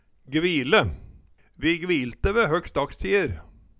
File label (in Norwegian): gvile, Numedalsmål; Vi gvilte ve høkstdags tier